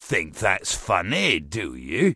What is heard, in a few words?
Dwarf Male Standard NPC Pissed